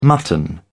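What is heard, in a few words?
"mutton", British English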